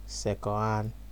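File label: "secondhand", Nigerian Pidgin, male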